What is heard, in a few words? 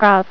"Grave", female voice; TalkingKeys